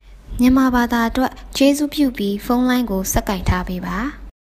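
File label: Burmese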